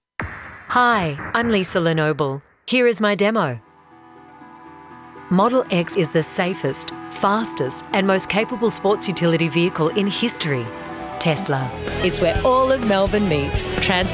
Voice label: Voice Over